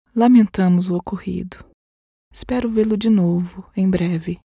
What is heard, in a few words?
error page generic emotional female brazilian portuguese voiceover